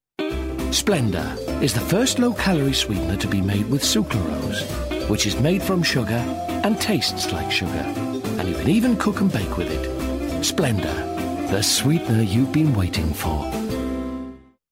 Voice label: Commercial; Splendour; Vocal Point